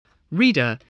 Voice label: "reader", english